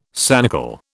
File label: "sanicle", British